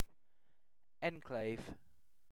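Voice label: "enclave", British English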